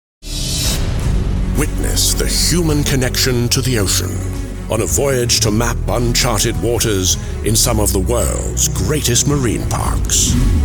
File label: doco narration; OUR BLUE HEART